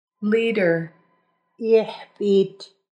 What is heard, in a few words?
Leader, Nuu-chah-nulth language phrases